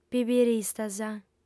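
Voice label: "pibiristas", Sardinian, female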